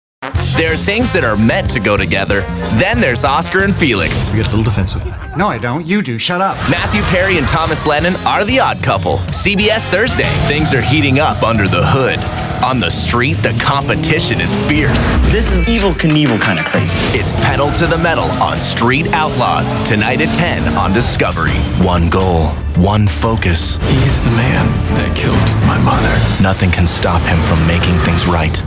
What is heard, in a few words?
Promos